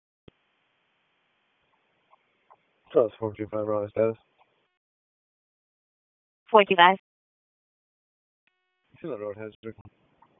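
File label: Police District Dispatch, p25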